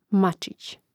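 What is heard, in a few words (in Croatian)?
"macic", Croatian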